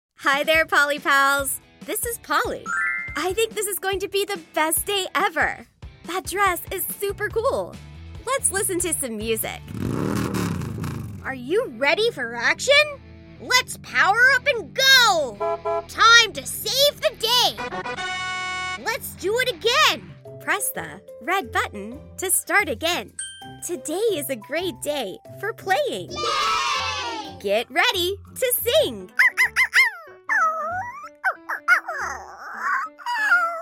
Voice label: English; Toys